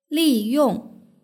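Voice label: "liyong" Mandarin Chinese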